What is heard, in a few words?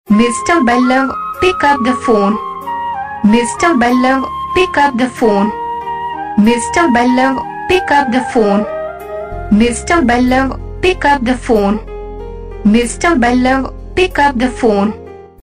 Pick Up The Phone, Ringtones; Ballav